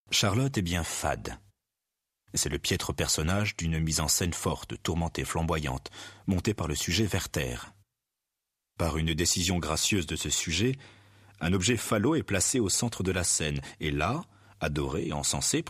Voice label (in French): lecture philo; barthes fragment dun discours amoureux